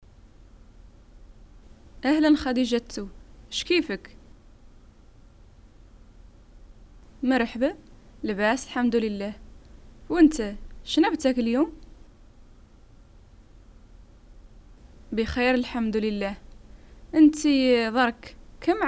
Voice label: dialogues, Hassaniya Arabic; family